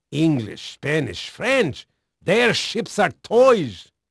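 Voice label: Dutch male, voice; Pirates Of The Caribbean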